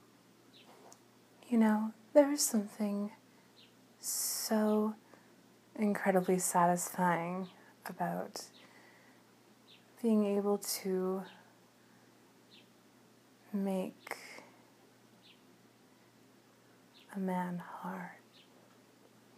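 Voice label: [F4M] [improv][teasing][dirty talk][sensual; sensuality; moans; How It Feels Getting You Hard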